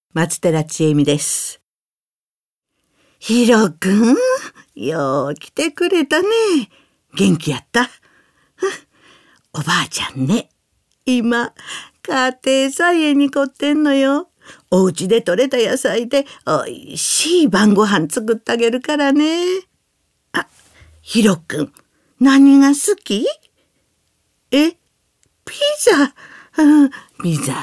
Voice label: drama